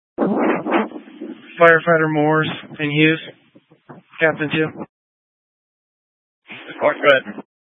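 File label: Incident Tac 2, Scanner audio recording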